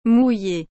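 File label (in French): "mouillée", French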